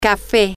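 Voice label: brown, Spanish, female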